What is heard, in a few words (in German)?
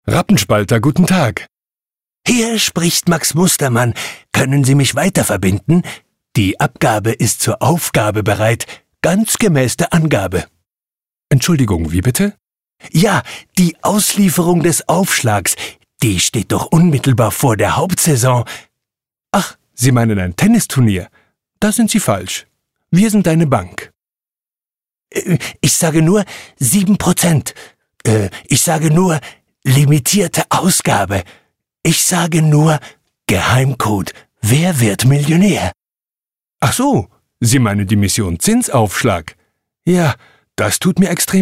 werbung; rappenspalter